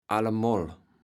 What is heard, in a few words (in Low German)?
"allemol", kaevels platt